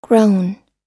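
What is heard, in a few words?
"grown", American English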